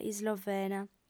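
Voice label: "islovena", Sardinian, female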